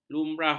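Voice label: "lumrah", Malay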